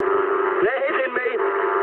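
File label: English aircraft damaged mood med; War Thunder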